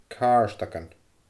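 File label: "càrdagan", Scottish Gaelic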